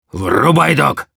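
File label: activate charge, Russian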